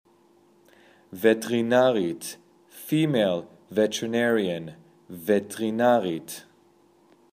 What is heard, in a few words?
Female Veterinarian